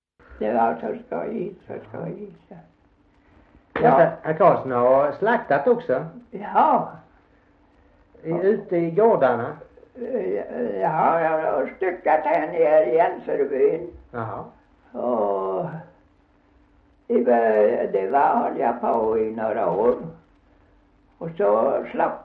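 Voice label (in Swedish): Gunnarp Halland, Svenska sagor, dialekter; Folkminnen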